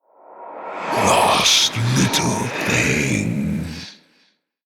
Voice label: Whispers

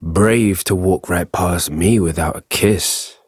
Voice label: Ambient Barks, en; Warframe; Mall Romance; Quincy

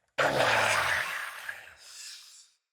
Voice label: Death Knight Male; Mendicant

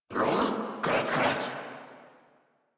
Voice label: Surprised Corrupted Grineer Male

